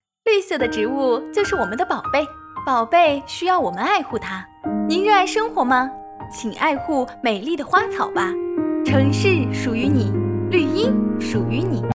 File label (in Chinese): 广告成熟欢快活力